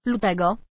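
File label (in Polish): "lutego", Polish; sr0wx; pl google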